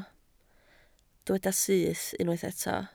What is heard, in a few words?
"syth", Welsh